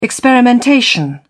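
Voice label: "experimentation", English, British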